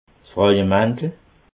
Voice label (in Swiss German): "frauemantel", alsace